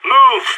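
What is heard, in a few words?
radio lets go, voice; agents; sas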